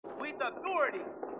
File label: with authority, marine; h2dialogue; marine perez